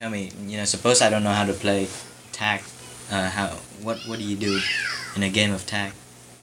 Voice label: Conversation with 13 year old male Virginia; loc american english dialect recordings